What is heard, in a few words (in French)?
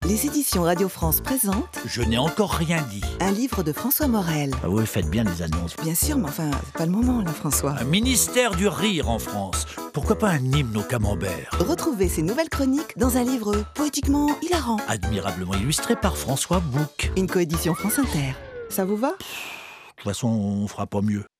voix off; Livre Francois Morel